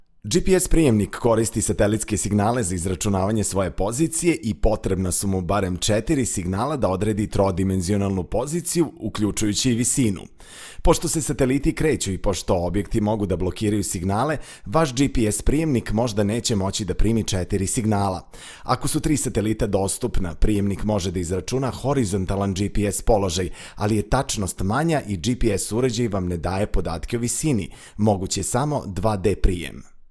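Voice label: Serbian, narration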